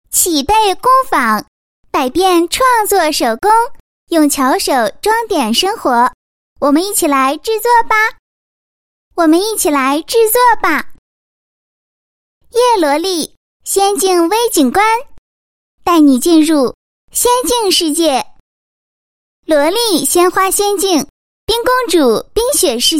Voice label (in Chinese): 女 女童; 玩创; 叶罗丽